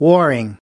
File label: "warring", us